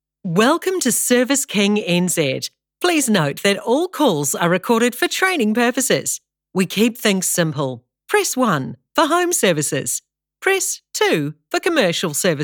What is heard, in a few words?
Phone Welcome Greeting; Service King